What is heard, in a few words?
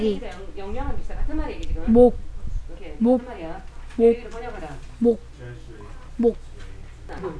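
"neck", spoken word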